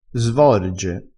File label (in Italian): "svorge", vocabolario dialettale